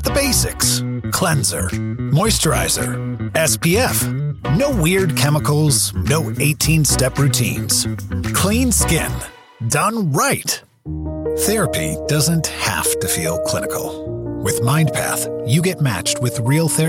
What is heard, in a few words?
Web Social Commercial; VO Demo